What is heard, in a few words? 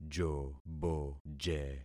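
"joboje", concatenated syllables; Affixing experiment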